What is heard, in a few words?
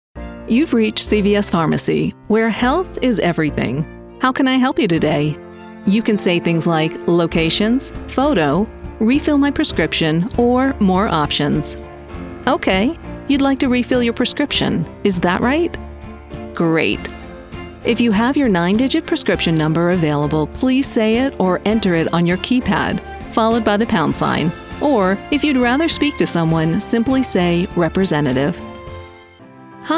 Telephony